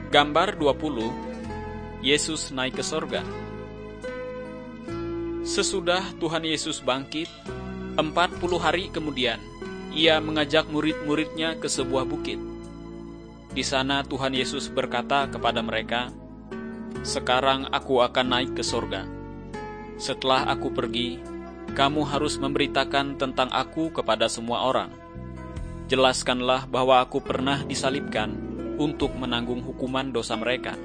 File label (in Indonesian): kabar baik good news bahasa indonesia